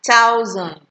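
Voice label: "thousand", Nigerian Pidgin, female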